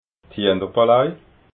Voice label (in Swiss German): "hienerpolei", alsace